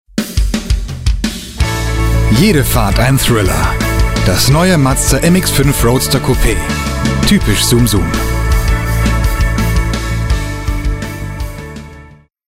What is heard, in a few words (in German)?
Werbung